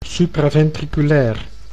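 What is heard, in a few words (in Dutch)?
"supraventriculair", Dutch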